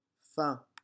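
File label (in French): "faon", French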